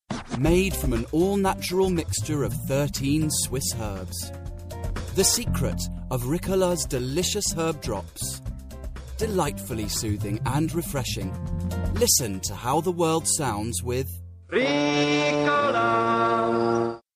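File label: English British, male, commercial; voice demos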